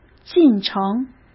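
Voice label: "进程", Mandarin Chinese; chinese flashcards; hsk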